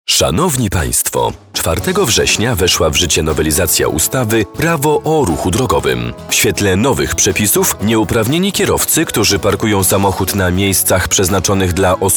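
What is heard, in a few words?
Polish commercial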